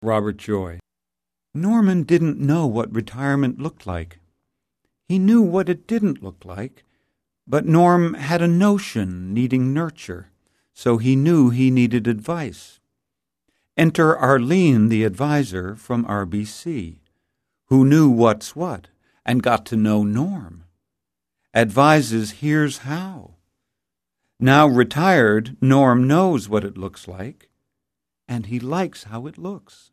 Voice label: commercial male